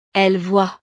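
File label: "elles voient", French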